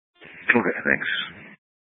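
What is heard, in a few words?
Sheriff Dispatch, Scanner audio recording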